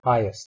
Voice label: "h2eies" PIE